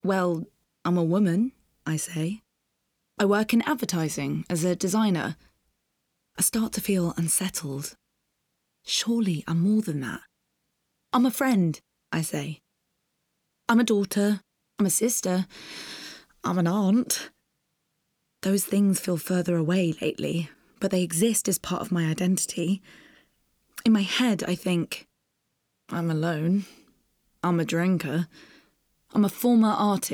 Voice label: AUDIOBOOK

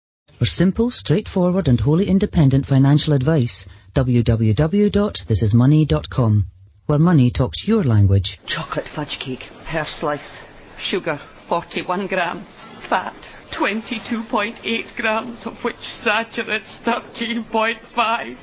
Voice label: Commercials

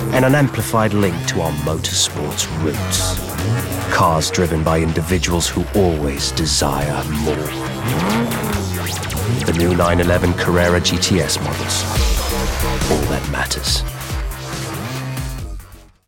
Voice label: Commercials Porsche GTS

